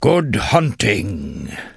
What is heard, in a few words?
Tuskarr Male Farewell; Warrior